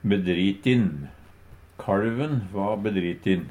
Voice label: "bedritin", Numedalsmål; Adjektiv

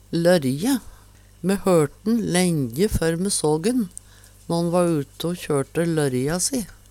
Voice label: lorje, Numedalsmål; lørje

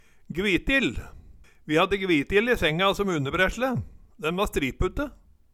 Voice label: gvitil, Numedalsmål

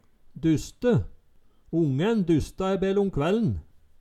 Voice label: "duste", Numedalsmål; Hoppe, sprette, springe, danse, leikeslåss.; presten Engelhart 1812

